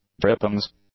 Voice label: "drippings", English; nouns

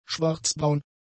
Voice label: "schwarzbraun", German; adjectives

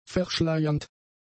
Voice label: "verschleiernd", German; adjectives

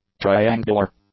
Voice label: "triangular", English; adjectives

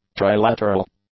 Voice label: "trilateral", English; adjectives

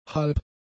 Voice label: "halb", German; adjectives

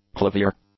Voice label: "clavier", English; nouns

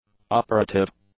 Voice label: "operative", English; adjectives

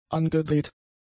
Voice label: "angedreht", German; adjectives

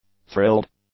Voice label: "thrilled", English; adjectives

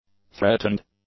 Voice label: "threatened", English; adjectives

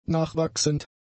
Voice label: "nachwachsend", German; adjectives

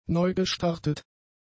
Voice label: "neugestartet", German; adjectives

